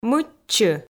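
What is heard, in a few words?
"мыччы", Komi, female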